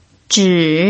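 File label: "zhi" Mandarin, third tone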